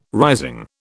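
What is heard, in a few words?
"rising", British English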